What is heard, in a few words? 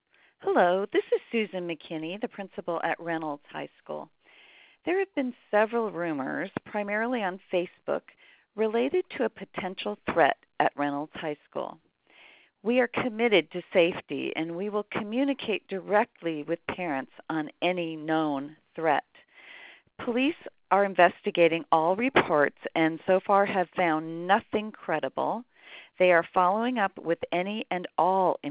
autodialer message